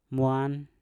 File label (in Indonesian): "mwan" ikan, Mpur